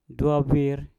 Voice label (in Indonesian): "dwau bwir" taring babi, Mpur